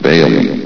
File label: "bailey", vox; Source Engine; bshift